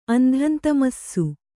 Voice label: "ಅಂಧಂತಮಸ್ಸು", kannada